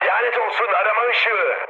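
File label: Turkish searchlight, mood high, speech; War Thunder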